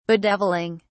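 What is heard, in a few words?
"bedevilling", English, British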